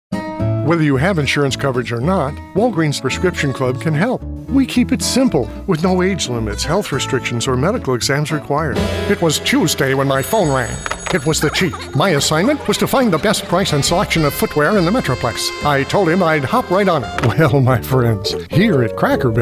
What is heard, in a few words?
Commercial